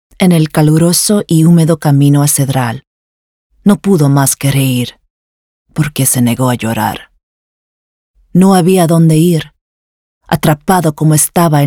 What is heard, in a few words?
Spanish Language Extract